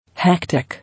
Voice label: "Hectic", English